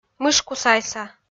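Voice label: "мышку сайса", Komi, female; kpv; audio dict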